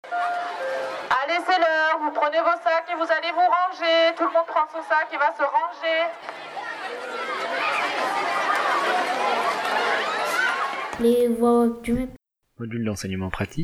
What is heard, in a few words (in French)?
Voix off